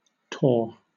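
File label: "tore", British English; pronunciations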